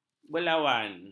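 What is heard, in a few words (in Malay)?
"berlawan", Malay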